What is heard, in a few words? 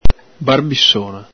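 "barbisona", Veneto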